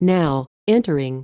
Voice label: now entering, automatic announcements; seattle; soundtransit; Link KI Fleet